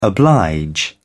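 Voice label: "oblige", British English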